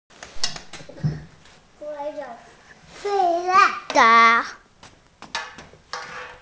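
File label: "na2", Mandarin Chinese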